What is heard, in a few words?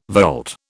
"volte", British